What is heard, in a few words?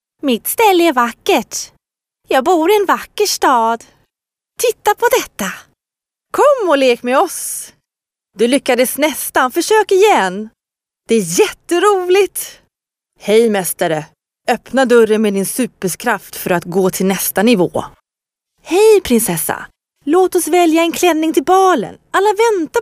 Female Swedish Toys